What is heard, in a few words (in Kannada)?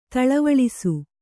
"ದಳವುಳಿಸು", Kannada